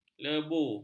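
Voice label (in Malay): "lebu", Malay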